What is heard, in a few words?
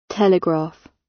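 "telegraph", British English; Time, history; Thời gian, lịch sử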